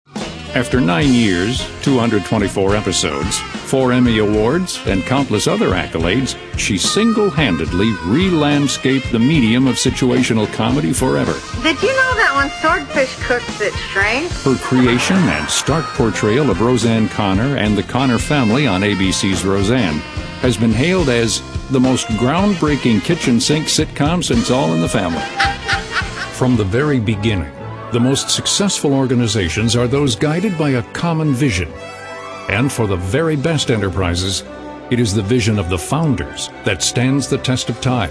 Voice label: male narration